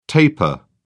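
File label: "tapir", British English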